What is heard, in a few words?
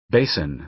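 "basin", American English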